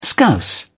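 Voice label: "scouse", British English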